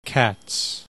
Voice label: "CATS", English

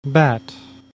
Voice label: "BAT", English

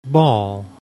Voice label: "BALL", English

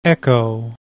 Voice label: "echo", English

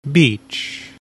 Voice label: "BEACH", English

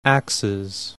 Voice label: "AXES", English

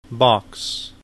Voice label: "BOX", English

